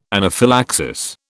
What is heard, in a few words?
"anaphylaxis", British